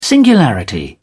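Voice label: "singularity", British English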